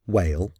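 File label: "whale", English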